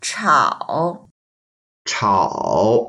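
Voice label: "chao3", Mandarin Chinese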